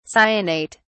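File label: "cyanate", British English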